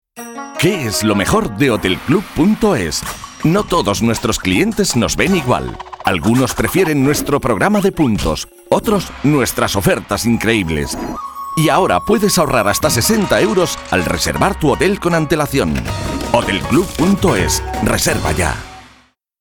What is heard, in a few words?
Radio